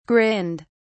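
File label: "grinned", British English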